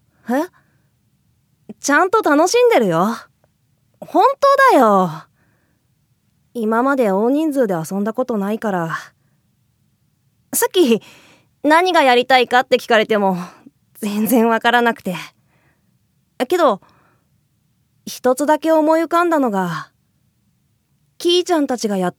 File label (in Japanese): 少年1